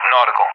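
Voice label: "nautical", English speech; DCS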